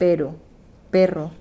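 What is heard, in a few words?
"pero perro", Spanish; Madrid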